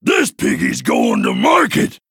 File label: Male Quilboar Attack